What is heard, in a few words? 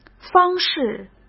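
"方式", Mandarin Chinese; hsk; chinese-flashcards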